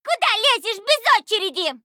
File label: Female Gnome Play